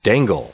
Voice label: "dangle", English; verb

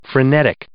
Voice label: "frenetic", English

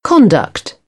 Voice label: "conduct", British; ɑː - ɒ; English

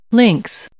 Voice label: "links", English

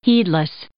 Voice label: "heedless", English

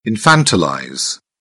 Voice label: "infantilize", British; ə - ɪ; English

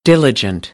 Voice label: "diligent", American English; ə - ɪ

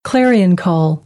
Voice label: "clarion call", American; AmericanBritish

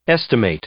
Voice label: "estimate", English; verb

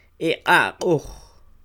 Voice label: E q'a'q'oj, Quiché; Están inclinados; They are leaning

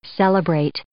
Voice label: "celebrate", English

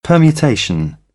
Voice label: "permutation", British English

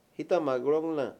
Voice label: "prometer", Mayo; Habla y lenguaje

